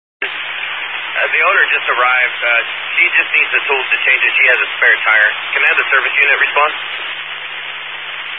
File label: Highway Patrol, Phoenix Metro West Area, scanner